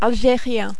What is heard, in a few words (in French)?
"algerien", French